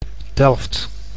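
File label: "Delft", Dutch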